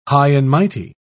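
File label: "high-and-mighty", English, British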